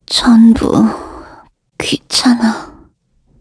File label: Dead, kr; Gremory; kingsraid